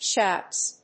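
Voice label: "shouts", English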